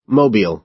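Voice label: "mobile", American English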